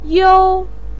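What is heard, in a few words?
"you1" Chinese syllable, pinyin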